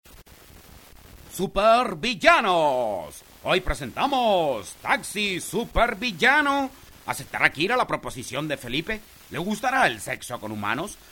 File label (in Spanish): locutor actor imitador